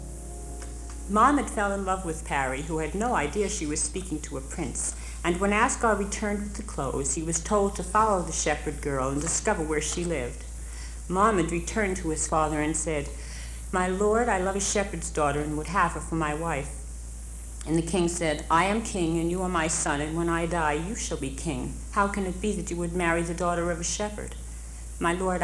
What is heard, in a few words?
Narration; pari and the prince; musical for children